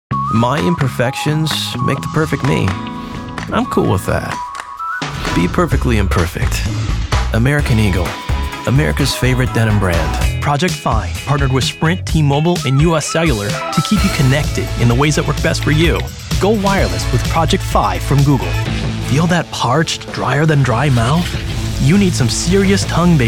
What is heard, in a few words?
Commercial